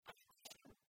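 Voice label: "артасьӧм", Komi, female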